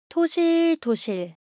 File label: Korean, Ideo Phones; Shape